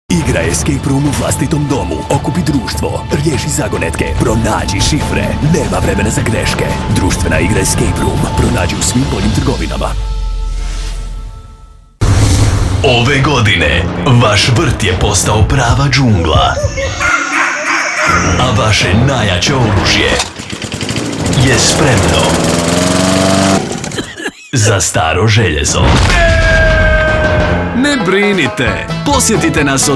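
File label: HR General, Croatian; GoLocalise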